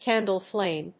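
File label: "candle-flame", English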